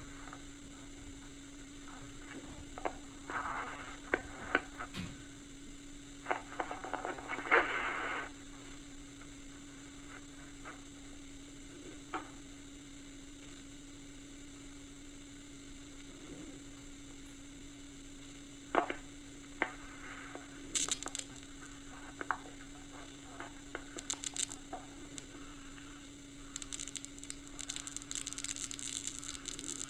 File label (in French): message accueil